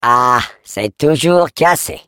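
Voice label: Defective Turret turret return defect fail, French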